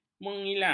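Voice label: "mengilang", Malay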